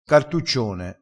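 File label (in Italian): "cartuccione", dialettale crespinese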